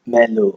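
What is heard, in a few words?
"mellow", Nigerian Pidgin, male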